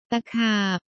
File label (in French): Scolopendre, thai